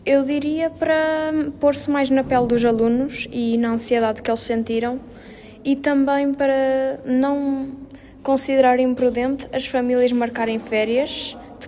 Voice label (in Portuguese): voz pop miúdos